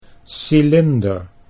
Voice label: "cylinder", Dutch